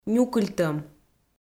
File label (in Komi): "нюкыльтӧм", Komi